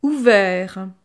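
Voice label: "ouvert", French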